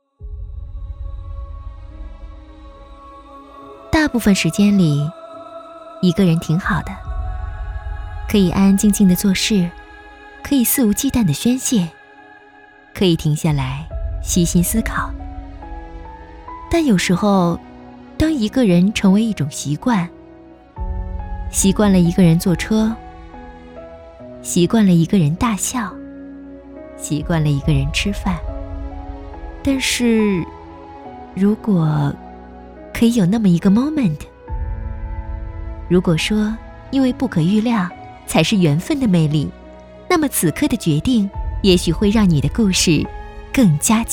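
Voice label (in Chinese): 女国大音-自述-一个人的精彩（文艺）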